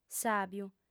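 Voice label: "sàbiu", Sardinian, female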